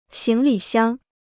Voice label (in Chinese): "行李箱", Chinese